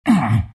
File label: treant pain